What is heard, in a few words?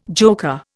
"joker", Indian